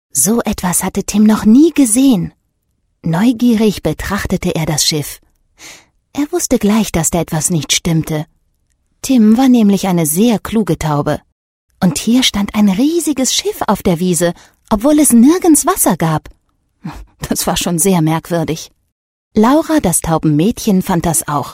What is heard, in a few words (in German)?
Hoerspiel Erzaehler ERZAEHLER MAERCHEN SINNLICH; Bibel; Arche Noah